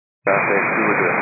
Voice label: 122.925 MHz; scanner radio